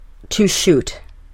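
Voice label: "to shoot", English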